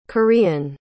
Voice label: "korean", pronounce